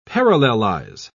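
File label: "parallelize", British English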